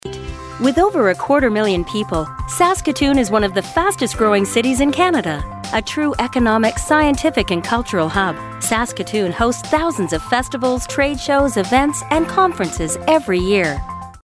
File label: Narration; City of Saskatoon